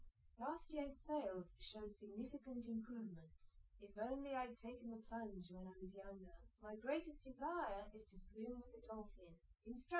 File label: female liverec 250ms 1m sim; SiSEC08; SiSEC underdetermined